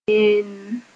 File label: "yẽnnn", Mooré